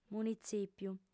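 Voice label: "munitzìpiu", female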